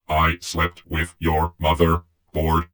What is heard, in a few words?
cheavy provoke, vox; Dystopia; vox new